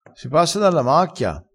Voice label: "sprunzone", vocabolario dialettale

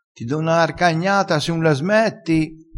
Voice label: "carcagnata", crespinese

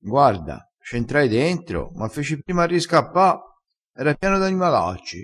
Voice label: "riscappa", crespinese

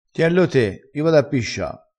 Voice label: "tienlo", crespinese

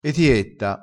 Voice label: "etietta", vocabolario dialettale

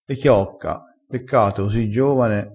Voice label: "chiocca", crespinese

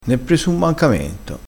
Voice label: "mancamento", crespinese

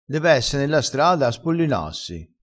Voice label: "spollinassi", crespinese

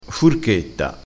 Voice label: "furchetta", crespinese

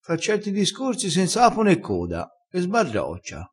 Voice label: "sbarroccia", crespinese

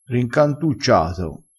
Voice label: "rincantucciato", crespinese

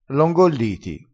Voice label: "ingolli", crespinese dialettale

